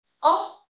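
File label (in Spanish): Voice Acting es F, Spanish, female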